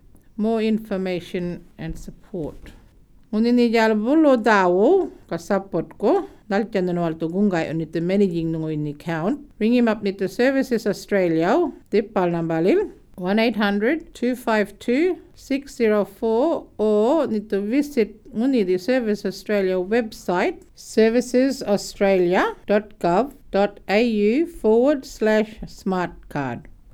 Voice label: yolngu matha more information and support

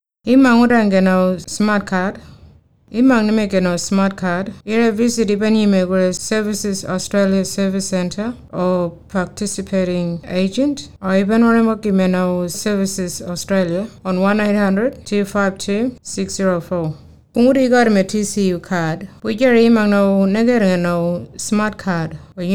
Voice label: kunwinjku; getting a smartcard

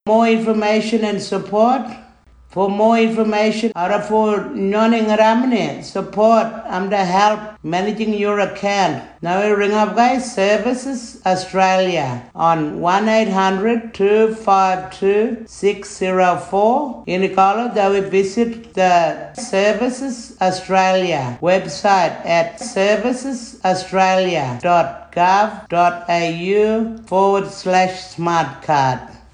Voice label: tiwi

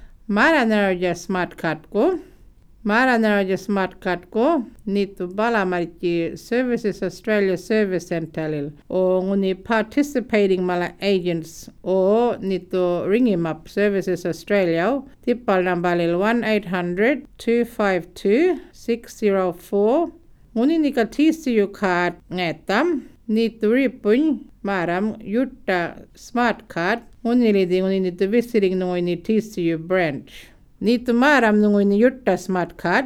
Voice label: yolngu matha; getting a smartcard

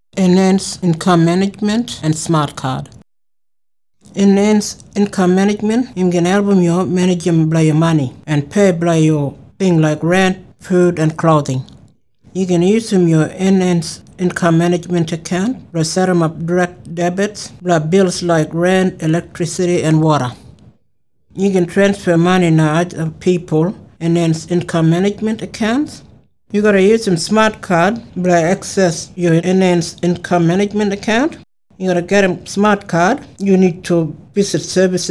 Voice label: kriol eim and smartcard

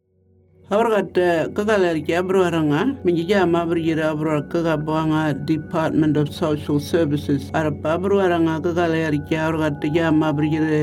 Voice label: Burarra; Income Management Consult

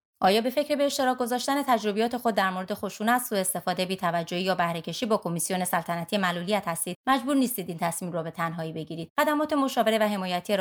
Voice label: persian; dss disability

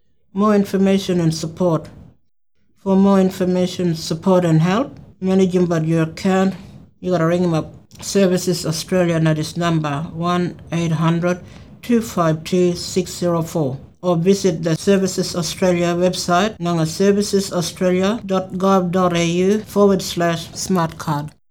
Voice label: kriol more information and support

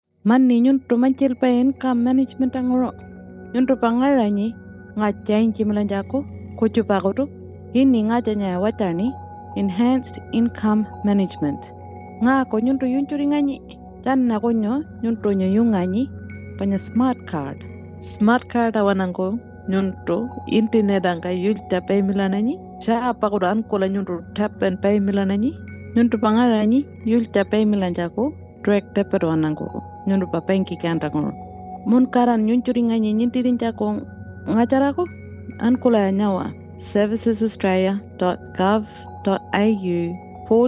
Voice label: pintupi; enhanced income management

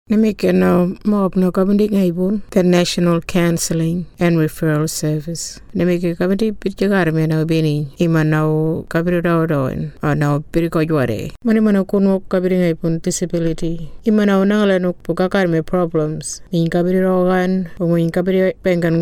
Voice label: kunwinjku

